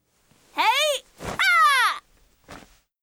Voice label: FEMALE Emotion